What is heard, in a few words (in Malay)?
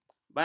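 "bancah", Malay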